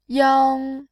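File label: "yang", Mandarin Chinese, tone 1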